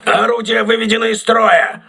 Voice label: voice message weapon destroyed, crew ships russian